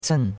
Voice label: "cen4", chinois; Cours-de-Chinois